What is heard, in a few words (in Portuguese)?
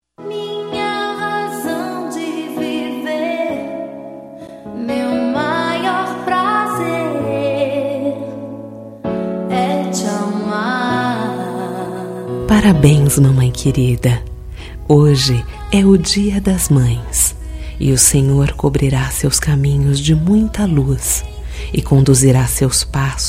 Dia das Maes Evangelica, F